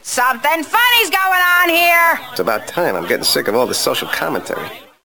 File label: commentary